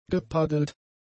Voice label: "gepaddelt", German; adjectives

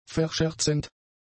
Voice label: "verscherzend", German; adjectives

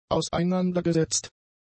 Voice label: "auseinandergesetzt", German; adjectives

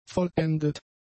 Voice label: "vollendet", German; adjectives

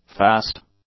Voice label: "fast", english; adjectives

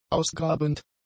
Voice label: "ausgrabend", German; adjectives

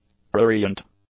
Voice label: "prurient", english; adjectives

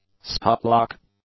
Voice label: "stop lock", english; nouns

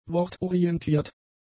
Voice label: "wortorientiert", German; adjectives

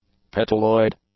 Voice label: "petaloid", english; adjectives

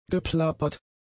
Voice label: "geplappert", German; adjectives

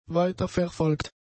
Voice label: "weiterverfolgt", German; adjectives

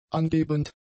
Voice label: "angebend", German; adjectives

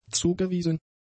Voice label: "zugewiesen", German; adjectives

